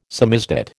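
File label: "samizdat", American English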